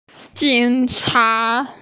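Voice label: "jǐng chá", Mandarin Chinese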